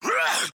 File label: hurt